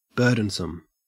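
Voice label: "burdensome", Australian English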